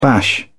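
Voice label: "bash", British English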